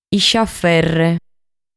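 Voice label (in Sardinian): "Isciaferre", Sardinian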